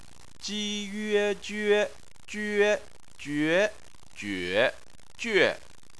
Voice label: "jue", chinese